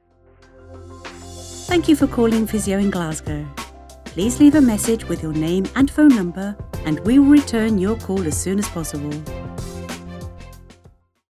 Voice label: Welcome with music, IVR; LovelyVoice; Physio Glasgow